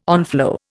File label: "onflow", Indian